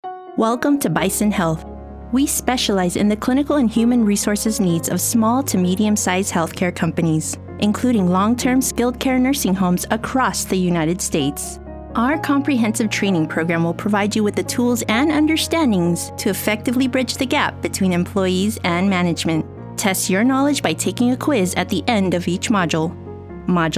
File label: E Learning